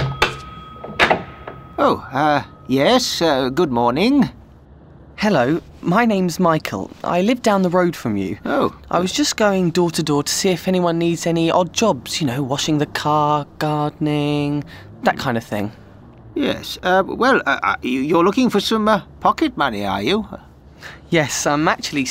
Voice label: Conversation; clg voltaire saint florent sur cher